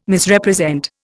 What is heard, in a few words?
"Misrepresent", Indian